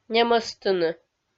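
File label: "немӧстыны", Komi, female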